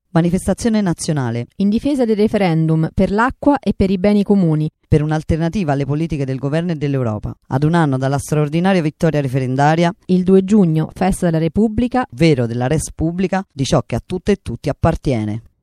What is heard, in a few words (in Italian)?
spot acqua manifestazione voce